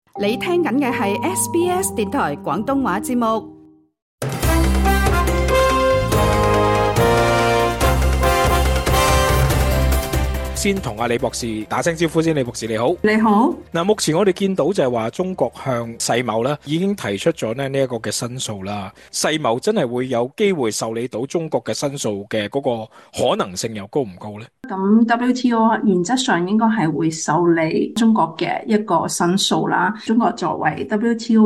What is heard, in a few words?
cantonese; 【美國一招可繞過世貿關稅仲裁】國際秩序亮紅燈或迫澳洲加速歸邊; 隨著中美關稅戰升溫，中國早前向世界貿易組織追加起訴美國向北京進一步加徵關稅。; SBS Chinese